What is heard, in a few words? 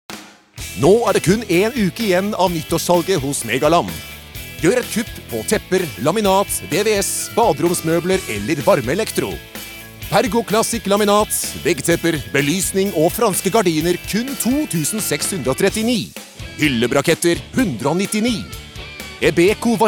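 Norwegian hard sell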